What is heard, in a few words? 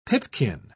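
"pipkin", British English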